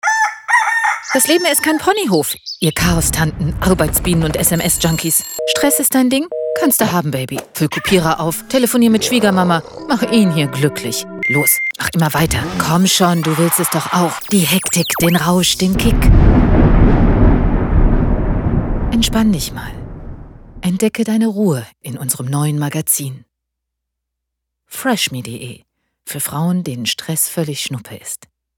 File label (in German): Werbung; Fresh Me